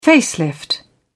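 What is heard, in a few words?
"facelift", British English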